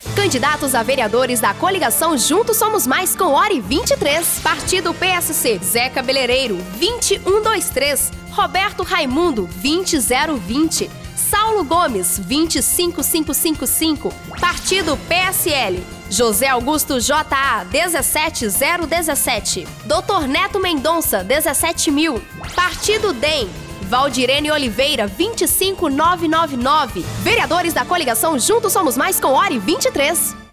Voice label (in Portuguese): SPOTs; JUNTOS SOMOS MAIS